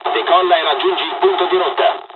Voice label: airfield takeoff, dialogs italian; War Thunder

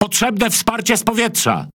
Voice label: Speech Quick Commands Need Support Plane, PL; World Of Warships

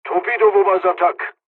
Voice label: japanese first wave, dialogs english; War Thunder

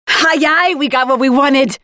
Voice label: Round win attack, Voiceovers; Project Argo

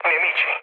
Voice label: enemy detected mood low, speech it; War Thunder

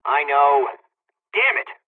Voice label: dialog, hungarian; dialogs; midway

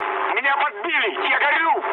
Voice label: on fire, mood low, speech ru; War Thunder

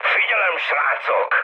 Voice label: near waypoint, mood high, speech hun; War Thunder

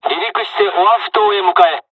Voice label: takeoff, dialogs japanese; War Thunder; usa

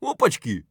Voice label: scav mutter, voice; Escape from Tarkov; scav5

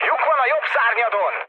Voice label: right wing, mood low, speech hun; War Thunder

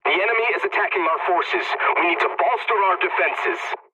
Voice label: pilot point under attack, english; War Thunder

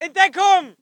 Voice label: voice ger infantry; Red Orchestra 41-45

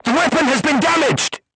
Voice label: voice message weapon damaged, vietnamese

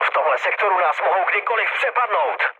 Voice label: chat, speech Czech; War Thunder

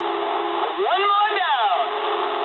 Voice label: eng player killed enemy mood low, speech eng

